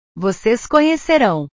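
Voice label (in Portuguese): "vocês conhecerão", Portuguese; portugues/verbos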